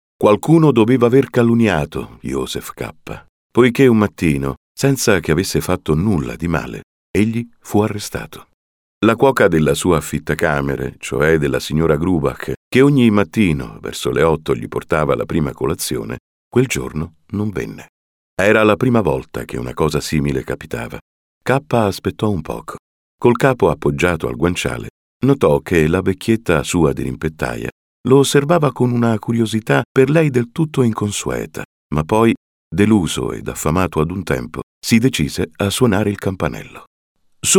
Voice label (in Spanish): audiolibro